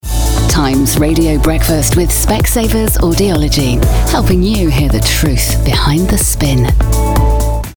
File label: imaging; reels